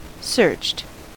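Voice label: "searched" English, US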